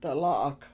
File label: "dalA7ak" Mountain, Washo